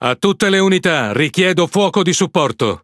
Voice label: It Speech Quick Commands Enemy Ship; World Of Warships